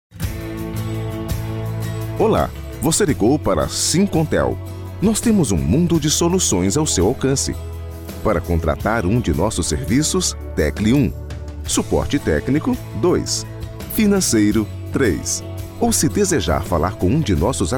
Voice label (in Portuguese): Voz Pre Atendimento Ura